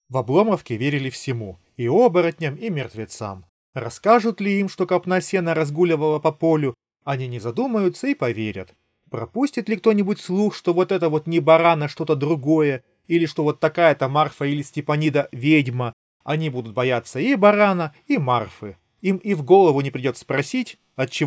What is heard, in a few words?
Recorder; moondrop golden ages2